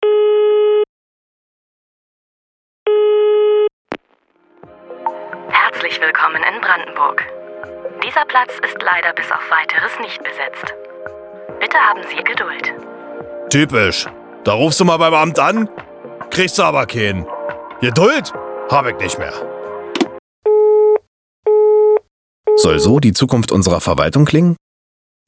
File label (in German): Funkspot Warteschleife